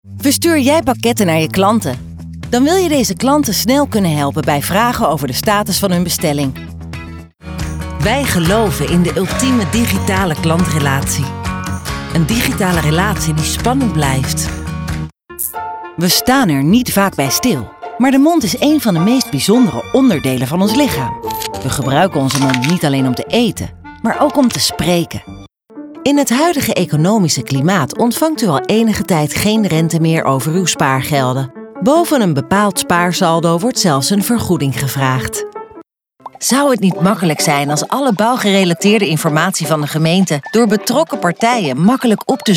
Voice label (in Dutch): NL corporate